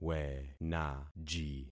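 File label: "wenaji", concatenated syllables; Affixing experiment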